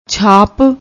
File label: "chhap", Punjabi; ਛਾਪ